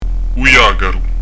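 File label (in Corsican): "u ghjacaru", Corsican; u j'agaru; Son "adouci"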